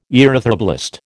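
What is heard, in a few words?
"erythroblast", American English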